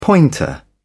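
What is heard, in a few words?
"pointer", British English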